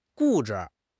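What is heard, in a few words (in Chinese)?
"固执", Chinese; HSK; HSK6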